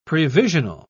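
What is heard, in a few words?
"previsional", British English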